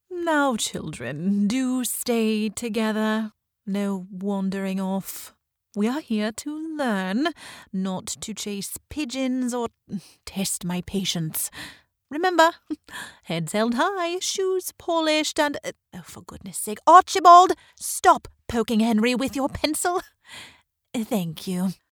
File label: Posh British, british rp character; Location: Omaha, NE, USA; english